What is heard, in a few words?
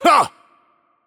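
Attack Heavy, Mexican Spanish; VO; es MX; bloom minigame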